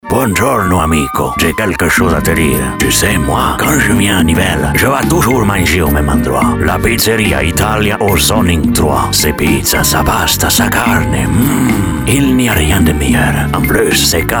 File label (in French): Voix Hom Accent Italien Parrain